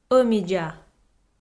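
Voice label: "ӧмидза", Komi, female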